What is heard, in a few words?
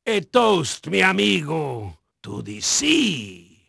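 Spanish male, voice; Pirates Of The Caribbean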